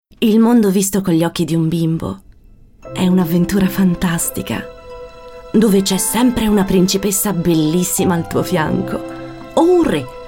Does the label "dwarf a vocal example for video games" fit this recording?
No